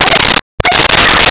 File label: vox jawa